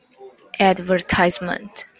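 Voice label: "advertisement", Taiwan, female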